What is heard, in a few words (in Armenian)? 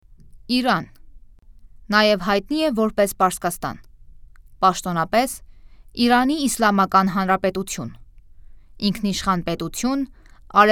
Armanian Narrtion